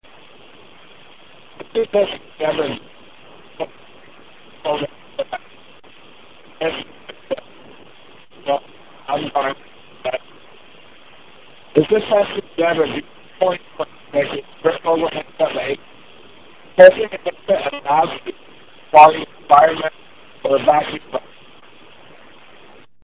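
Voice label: vacuum, Bluetooth headset; JabraBT2020; Mogul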